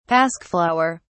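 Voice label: "pasque flower", British English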